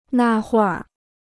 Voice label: "那会儿", Chinese